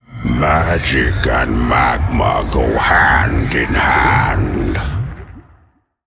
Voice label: Elemental Emote